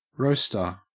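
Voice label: "roaster", Australian English; pronunciations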